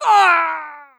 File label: male death